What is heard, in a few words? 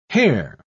"hair", English